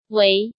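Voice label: "Wéi", Chinese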